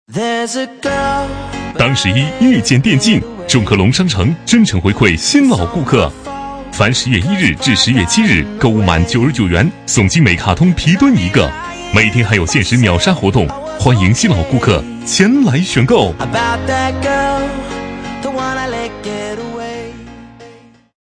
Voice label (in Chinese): 男 促销; 众客隆商城